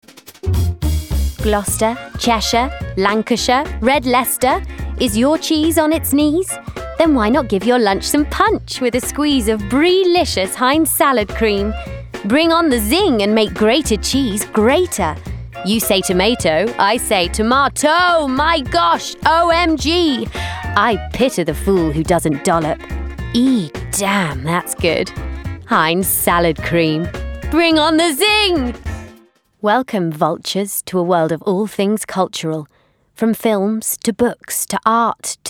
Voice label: Commercial